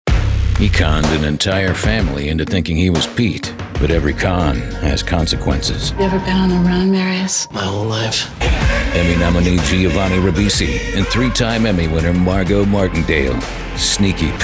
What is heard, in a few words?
promo